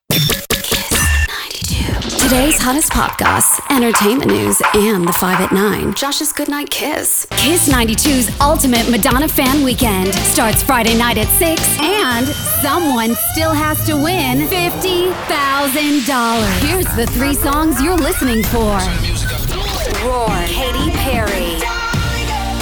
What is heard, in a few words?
radio imaging